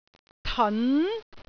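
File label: "teon2", Cantonese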